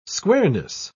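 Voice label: "squareness", British English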